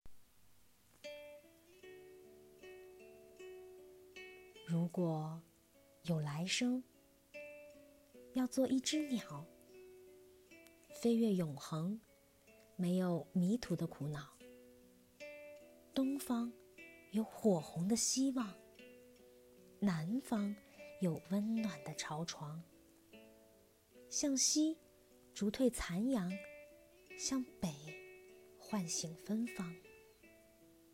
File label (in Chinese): 情感类